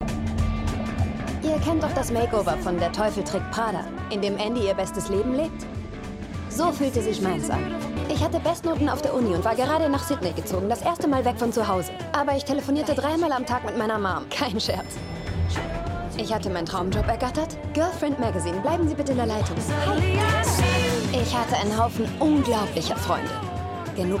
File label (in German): Milla feel good intro, MILLA (Auszug)- fröhlich / leicht